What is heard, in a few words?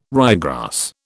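"ryegrass", British English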